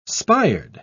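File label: "spired", British English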